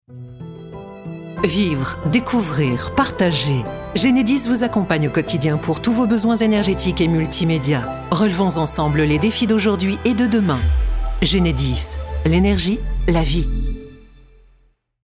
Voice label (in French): Spot Radio; Genedis; Chablais